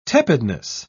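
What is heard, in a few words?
"tepidness", British English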